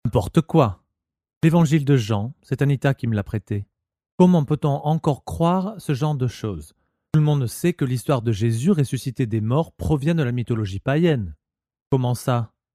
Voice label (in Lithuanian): VYR Prancuzu balsas, vyras